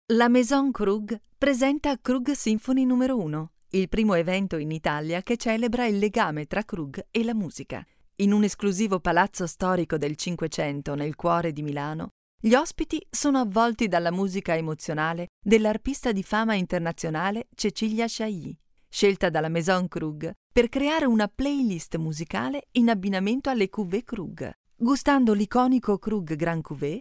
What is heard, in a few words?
italian female corporate